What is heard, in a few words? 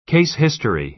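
"case history", American English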